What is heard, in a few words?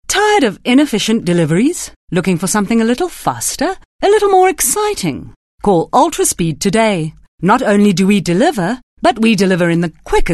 English Regular